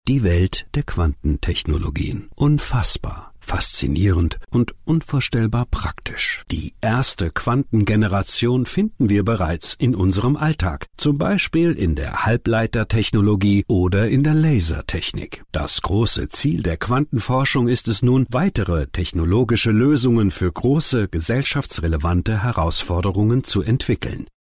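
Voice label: German M Narration, male; voice over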